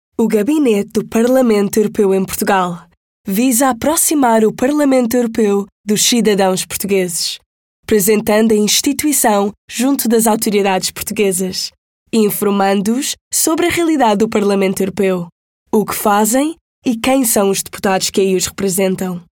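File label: Portuguese Europe, narration; GoLocalise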